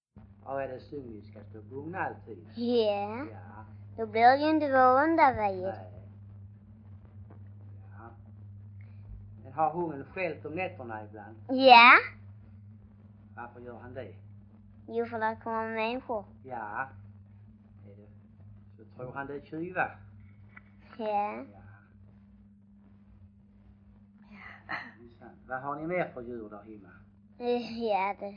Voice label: Stehag Västra Sallerup SK, dialekter; Folkminnen

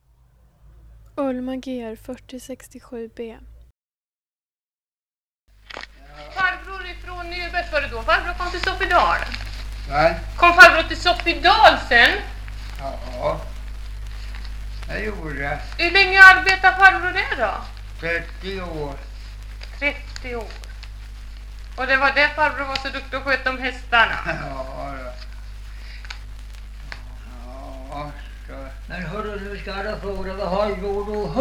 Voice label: Valbo, dialekter; Svenska sagor; Folkminnen

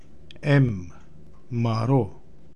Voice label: Maro Manro, Romska

